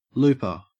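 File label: "looper", Australian English; pronunciations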